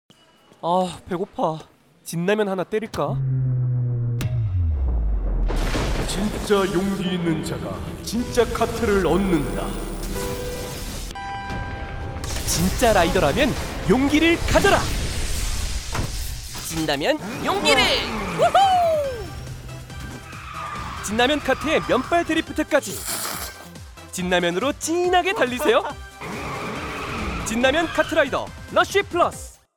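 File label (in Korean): 젊은 활기찬, 광고; 오뚜기x카러플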